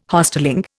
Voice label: "Hostelling", Indian